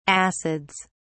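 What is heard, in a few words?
"acids", British English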